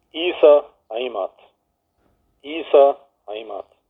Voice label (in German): Mundart; tannheimertal